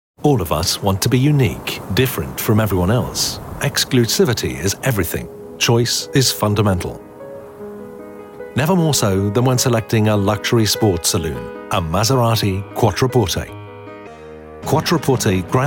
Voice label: english british automotive